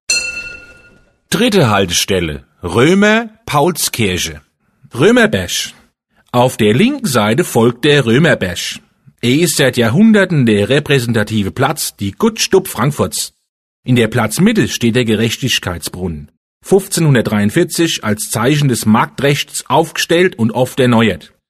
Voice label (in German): Hessisch; Römer Paulskirche; Ebbelex; Entlang der Strecke